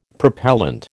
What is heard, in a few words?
"propellant", American